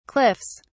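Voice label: "cliffs", British English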